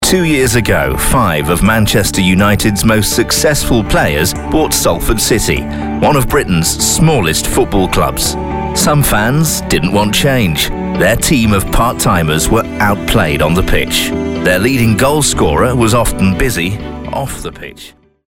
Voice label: Sprecher Nativspeaker